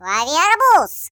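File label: Melon, Russian; hedgewars; Default ru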